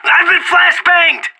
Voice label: blinded, player vo; Counter-Strike Global Offensive

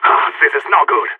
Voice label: scared emote; player vo phoenix

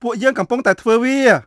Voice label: Khmer Order; Beyond the Sword; Units

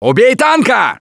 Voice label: battlecry tank, russian; Gambler

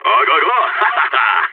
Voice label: on a roll brag, balkan; Counter-Strike Global Offensive

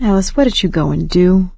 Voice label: survivor mourn, survivor voice; Left 4 Dead 2; producer; ellis

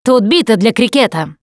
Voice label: melee weapons, russian, Survivor Voice Producer

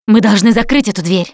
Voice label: close the door, russian, Survivor Voice Producer

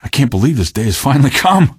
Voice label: question, male; Garry's Mod; hl2

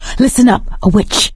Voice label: heard witch, survivor voice; Left 4 Dead 2; producer

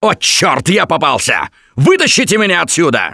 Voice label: call for rescue, russian; Gambler

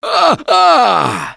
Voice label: Hurt Major, russian; Gambler

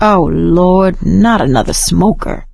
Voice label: heard smoker, survivor voice; Left 4 Dead 2; producer

